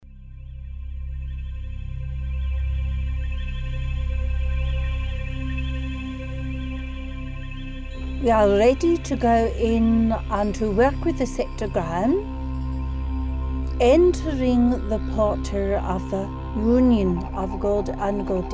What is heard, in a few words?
Final Beltane Ritual Meditation